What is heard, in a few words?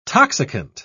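"toxicant", British English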